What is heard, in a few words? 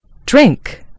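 "drink", American English; gcompris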